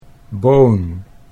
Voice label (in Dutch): "boon", Dutch